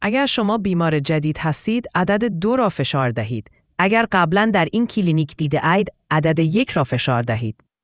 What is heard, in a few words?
question persian